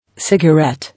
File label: "Cigarette", English